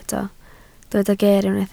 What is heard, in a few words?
"ger", Welsh